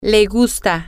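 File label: "he likes", Spanish, female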